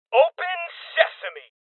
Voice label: Voices Door Kick Male Young; Rebel Cops

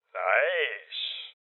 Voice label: Voices Loot Collect Male Old

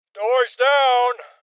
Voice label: Voices Door Kick Male Old; rebel cops 2019

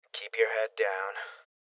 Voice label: Voices Guardian Male Young; rebel cops 2019

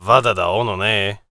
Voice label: warrior conversation emotion neutral

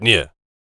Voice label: warrior conversation emotion disagree

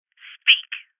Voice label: Voices Interrogate Female

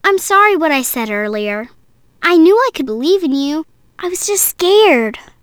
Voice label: Voices Speech Girl; Abyss The Wraiths of Eden; Maze-Girl

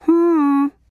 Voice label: Emote Male Hello

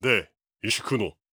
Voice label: angry

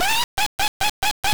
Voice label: Witch Voice; captain silver; master system

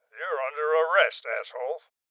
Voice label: Order To Surrender Male Old

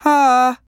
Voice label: Emote Male Hello

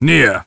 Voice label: Warrior emotion disagree

